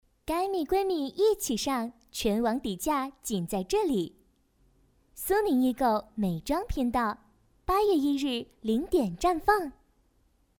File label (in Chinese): 广告 互联网 年轻, 女国; 苏宁易购